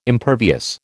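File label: "impervious", American English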